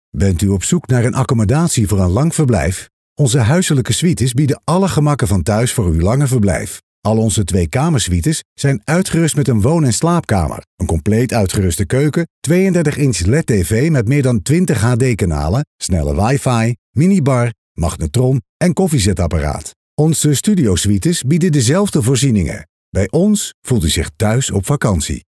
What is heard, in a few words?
Sincere